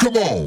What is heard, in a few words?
"C'mon", EDM Future Vocals; Big EDM; Future House Tools